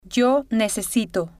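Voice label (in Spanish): yo necesito, spanish, female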